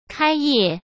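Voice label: "开业", Mandarin Chinese; hsk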